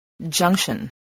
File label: "junction", English